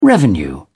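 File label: "revenue", British English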